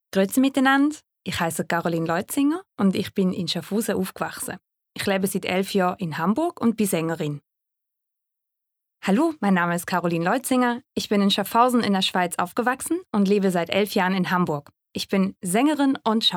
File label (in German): CH DEU